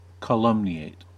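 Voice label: "calumniate" English, American accent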